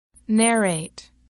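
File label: "narrate", English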